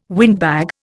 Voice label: "windbag", Indian

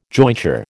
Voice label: "jointure", American

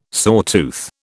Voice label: "sawtooth", British

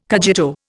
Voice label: "cogito", Indian

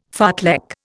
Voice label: "fartlek", Indian

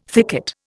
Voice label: "thicket", Indian

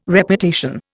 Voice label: "Reputation", Indian

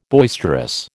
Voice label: "boisterous", American

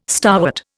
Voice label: "starwort", Indian

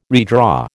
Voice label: "redraw", American

